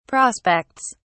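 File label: "prospects", British English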